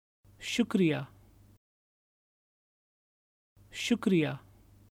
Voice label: Thank you, Urdu

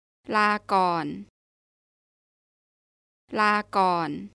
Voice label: "Goodbye", Thai

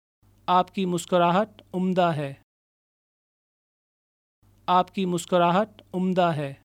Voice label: Your smile is wonderful Urdu

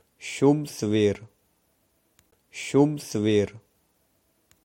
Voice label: Good morning punjabi

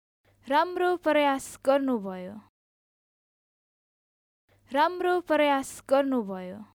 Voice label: Good try Nepali

